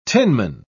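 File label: "tinman", British English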